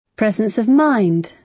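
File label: "presence of mind", British English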